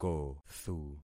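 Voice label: "kothu", concatenated syllables; Affixing experiment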